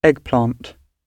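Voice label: "eggplant", British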